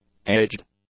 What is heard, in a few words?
"aged", English; adjectives